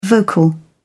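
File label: "vocal", British English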